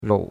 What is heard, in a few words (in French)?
"rou3", chinois; Cours-de-Chinois